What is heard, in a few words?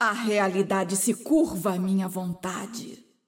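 Portuguese; 秩序之光（守望先锋）; 终极技能; 葡萄牙语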